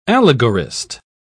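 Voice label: "allegorist", British English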